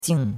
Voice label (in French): "jing4", chinois; Cours-de-Chinois